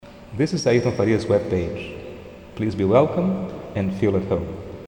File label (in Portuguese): Ingles